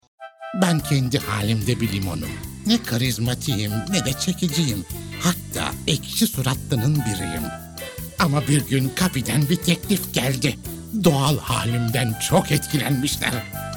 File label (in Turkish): ANIMASYON